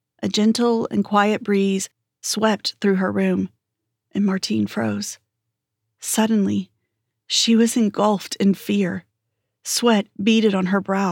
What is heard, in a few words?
Suspense Thriller